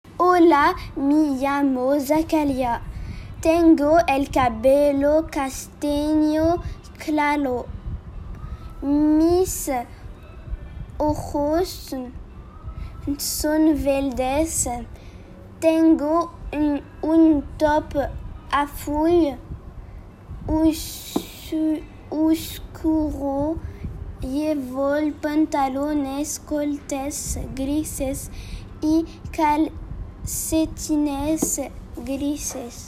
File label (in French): Enregistrement Espagnol; description physique